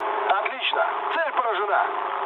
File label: bomb success, mood low, speech ru; War Thunder